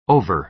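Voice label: "over", American English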